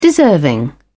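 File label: "deserving", British English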